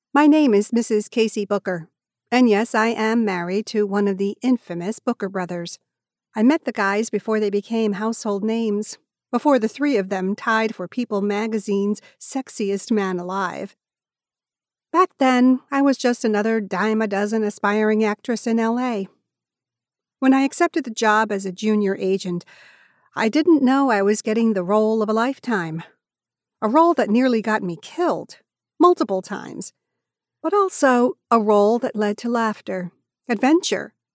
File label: Audiobook Cozy Mystery with Comedy